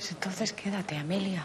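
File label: Voice Acting es Female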